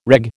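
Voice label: "reg", American English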